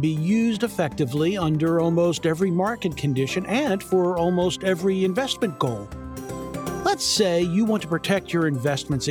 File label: Explainer Understanding Options